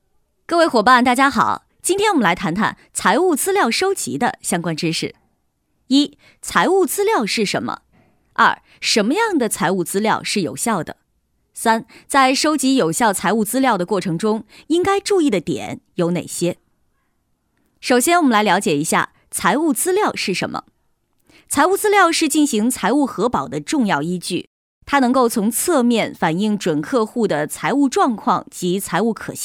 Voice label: 女 网课

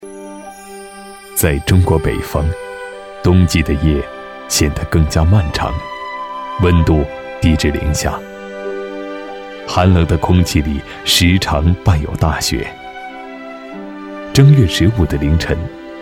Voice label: 男 专题 央视纪录风格 地方风物纪录片, 国语男声配音

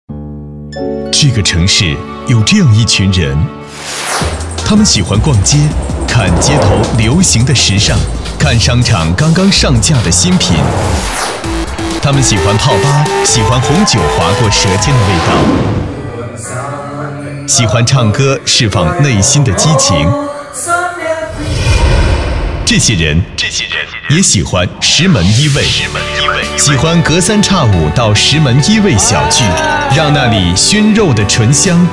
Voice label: 广告 餐饮, 国语男声配音

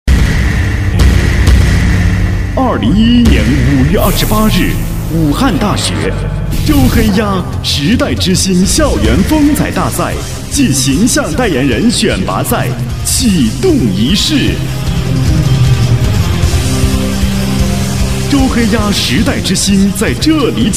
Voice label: 男 晚会开幕

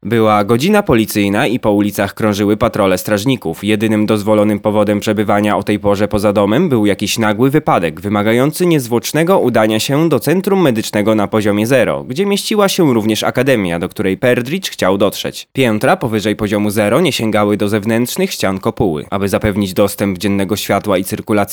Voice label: 波兰语男 叙述

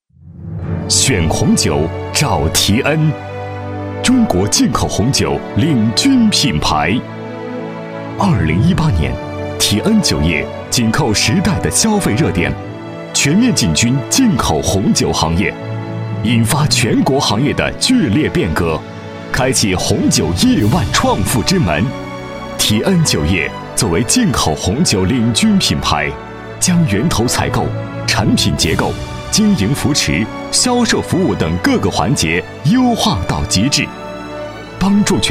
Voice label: 男 品牌视频文案

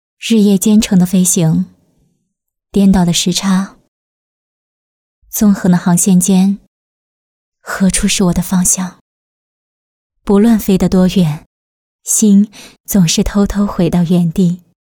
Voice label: 女 旁白; 回到原地; 方言

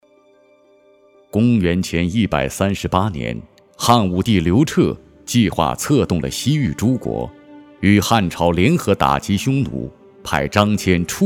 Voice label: 男 片头; 丝绸之路

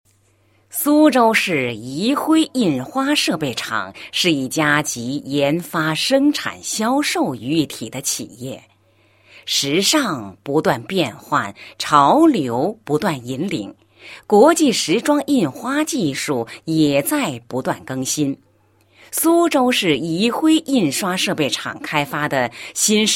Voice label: 女 产品介绍; 宜晖印花产品介绍

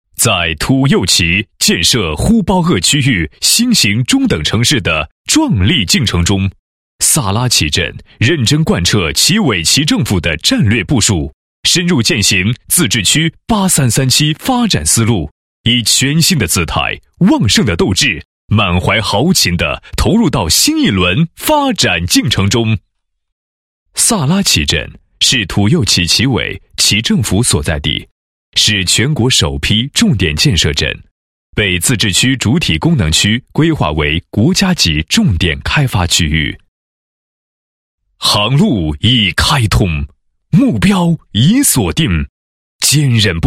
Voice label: 男 工作总结; 萨拉齐镇2016年十个全覆盖

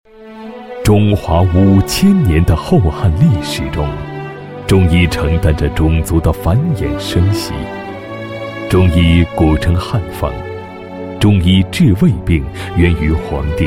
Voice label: 男 中医品牌宣传, 配音; 蚁干堂一付老方 解疾苦

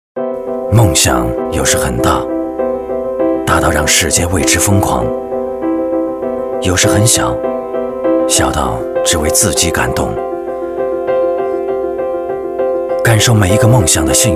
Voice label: 男29 自然风格, 国语男声配音; 广本2016全新形象

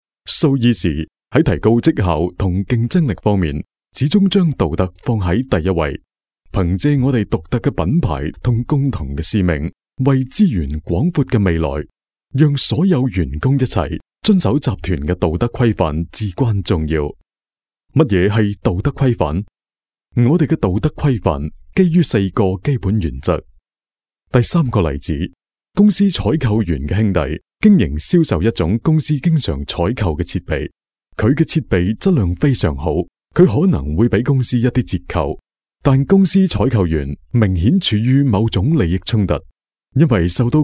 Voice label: 粤男 公司内部课件; 苏伊士

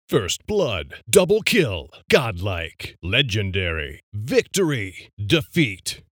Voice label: 英文部分试音

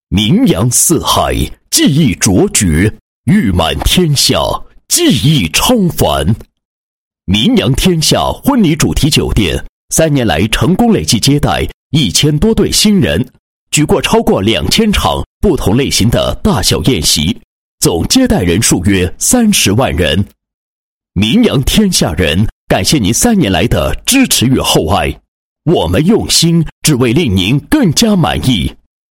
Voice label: 男 宣传广告 酒店介绍; 名扬天下酒店介绍

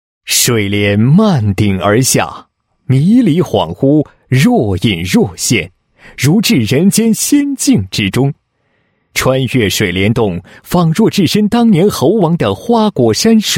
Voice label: 男 旅游, 配音; 贵州爱心接力神秘之旅

